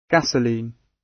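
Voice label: "gasoline", British English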